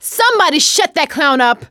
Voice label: see clowns, survivor voice; producer